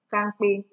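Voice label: "kampe", Nigerian Pidgin, female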